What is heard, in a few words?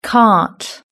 "cart", British English